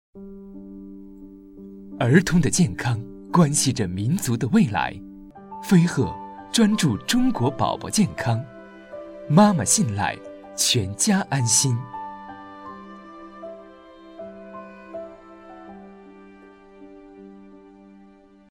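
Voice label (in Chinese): 温馨广告奶粉; 飞鹤奶粉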